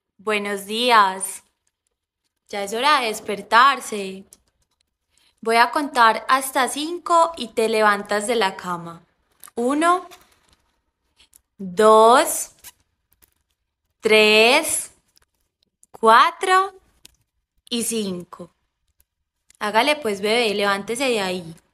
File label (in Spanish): Acento Paisa